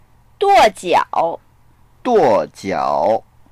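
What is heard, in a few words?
"duo4jia3o", Mandarin Chinese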